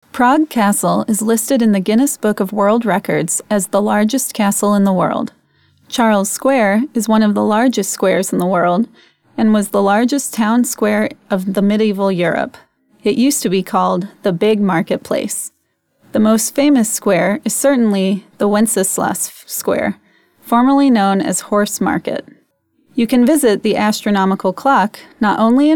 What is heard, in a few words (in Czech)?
ukazka angl